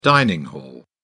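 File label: "dining-hall", British English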